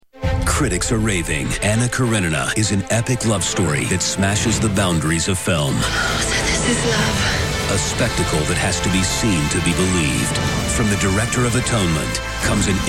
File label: Trailer